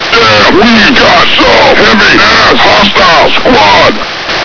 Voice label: intro grunt; svencoop